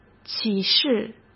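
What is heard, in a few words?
"启事", Mandarin Chinese; chinese flashcards; hsk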